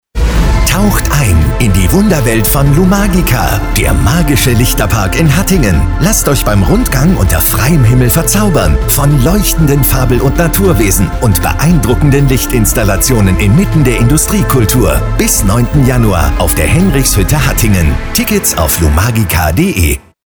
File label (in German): Radio; Lumagica; Hattingen